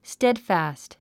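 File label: "steadfast", English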